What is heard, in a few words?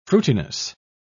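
"fruitiness", British English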